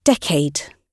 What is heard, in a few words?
"decade", gb